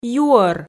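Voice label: "юӧр", Komi, female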